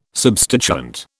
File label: "substituent", British